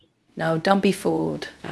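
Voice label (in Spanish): Voice Acting, Spanish, female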